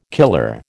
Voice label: "killer", American